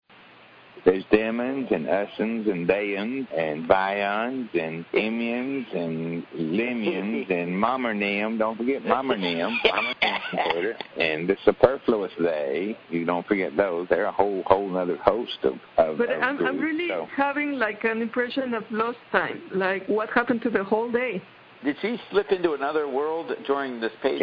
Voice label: rant